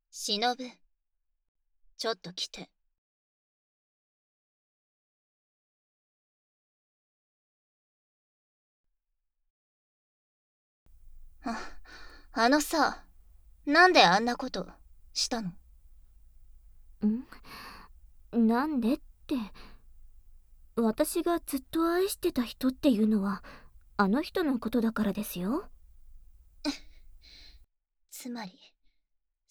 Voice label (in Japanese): SE、環境音無し; ちょっと来て。